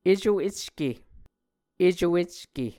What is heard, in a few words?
"eco ecke", muscogee; A Dictionary of Creek/Muskogee; SIL International; Onvkv Ohhvyayices